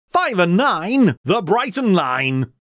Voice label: call bighton line 59, Bingo Calls; Bouncy Bingo Balls; Eyecon